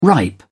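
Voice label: "ripe", British English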